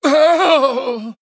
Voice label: Male Blood Elf Death

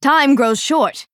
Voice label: Female Dryad

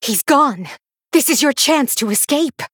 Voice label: Female Human Victory; Taretha; Thrall

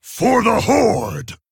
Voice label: Male Orc

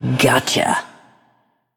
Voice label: Female Forsaken Attack

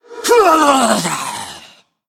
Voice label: Male Skeleton Attack

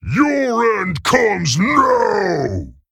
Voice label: Male Ogre Play

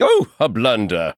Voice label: Male Chess Piece Chess Emote Oops; White King

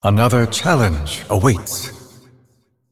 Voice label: Male Celestial Bark

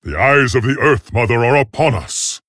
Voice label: Male Tauren Play

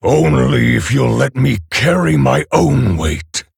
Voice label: Male Orc Ogre

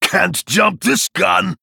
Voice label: Male Human Attack; Jal

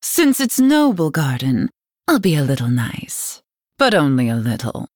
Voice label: Female Night Elf happy

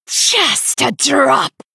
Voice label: Female Sanlayn Attack

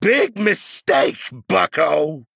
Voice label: Male Goblin; Dr Boom; Reject Boss

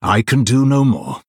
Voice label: Male Blood Elf Concede

